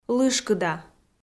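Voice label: "лышкыда" Komi, female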